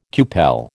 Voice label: "cupel", American

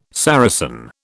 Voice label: "Saracen", British

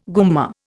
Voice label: "gumma", Indian

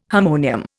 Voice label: "harmonium", Indian

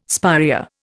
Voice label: "spirea", Indian

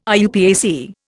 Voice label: "IUPAC", Indian

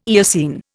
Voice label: "Eocene", Indian

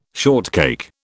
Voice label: "shortcake", British

